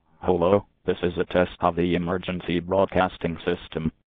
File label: Flite 1.3 test